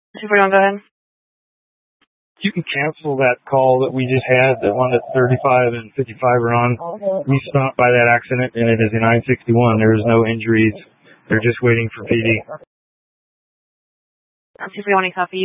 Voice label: Fire Dispatch; K8 - FDCOM 8 - South; C- Chandler

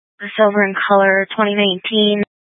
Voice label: Police Dispatch

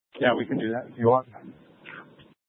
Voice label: Mutual Aid-Intersystem Interop B, scanner

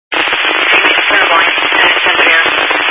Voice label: Highway Patrol, scanner; DPS

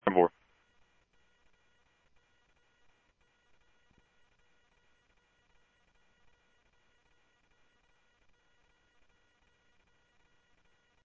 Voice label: Police, scanner audio

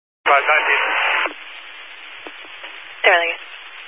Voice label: Highway Patrol, Phoenix Metro East Area, scanner

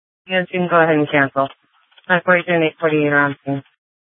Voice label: Sheriff, scanner P25

